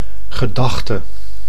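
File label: "gedachte", Dutch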